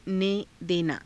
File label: "ne denaa", Hindi